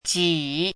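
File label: "jǐ", Chinese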